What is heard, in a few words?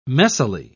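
"messily", English, British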